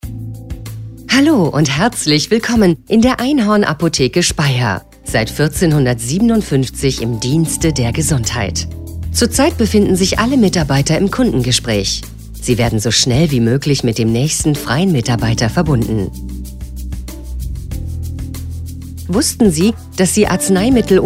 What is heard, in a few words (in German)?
Ansage; inhorn Apotheke